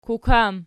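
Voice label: "kukam", nominative, Archi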